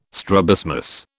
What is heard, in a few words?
"strabismus", British